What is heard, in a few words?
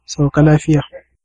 bon voyage hausa